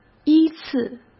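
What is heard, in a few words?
"依次", Mandarin Chinese; hsk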